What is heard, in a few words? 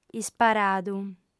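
"isparadu", Sardinian, female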